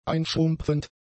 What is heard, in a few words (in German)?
"einschrumpfend", german; adjectives